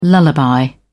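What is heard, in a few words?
"lullaby", British English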